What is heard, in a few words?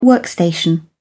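"workstation", British English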